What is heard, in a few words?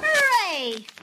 "hooray", girl; Voice